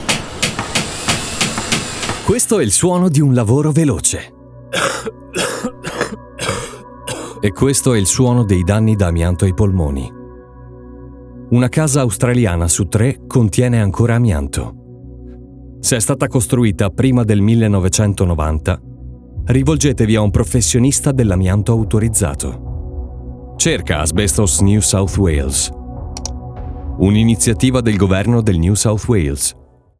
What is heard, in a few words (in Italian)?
RADIO ITALIAN